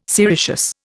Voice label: "sericeous", Indian